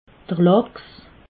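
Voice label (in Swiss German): "lachs", Alsatian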